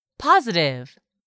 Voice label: "positive", English; 3000 words